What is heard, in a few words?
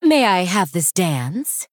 Female Blood Elf